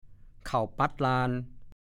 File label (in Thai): "เข่าปั๊ดลาน", ภาษาโคราช